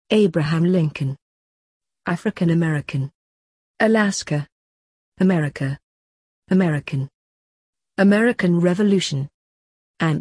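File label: British; united states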